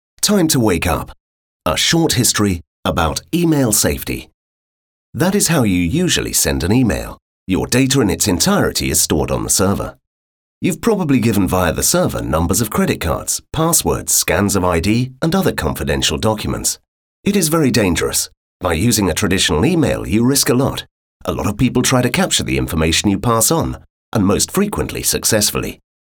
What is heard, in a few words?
english